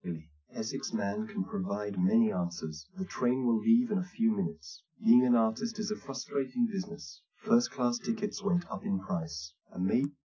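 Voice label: male speech; SiSEC10; underdetermined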